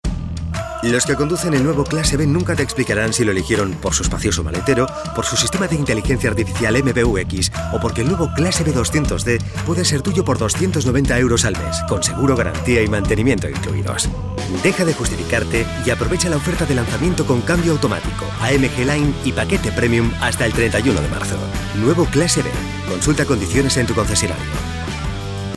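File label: male Spanish car commercial; ideasonora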